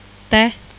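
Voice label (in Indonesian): "teh", Indonesian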